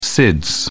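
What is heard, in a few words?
"sids", British English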